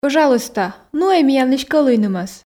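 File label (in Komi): пӧжалуйста нуӧй миянлысь кӧлуйнымӧс, Komi, female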